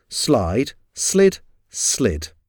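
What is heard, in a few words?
"slide slid slid", English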